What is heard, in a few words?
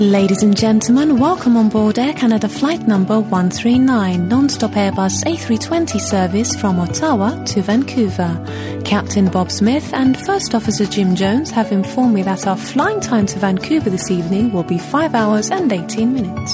English F; greek; voice over